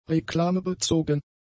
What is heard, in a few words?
"reklamebezogen", German; adjectives